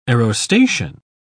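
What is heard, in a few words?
"aerostation", English, uk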